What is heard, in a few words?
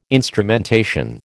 "instrumentation", American